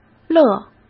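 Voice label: "乐", Mandarin Chinese; chinese-flashcards; hsk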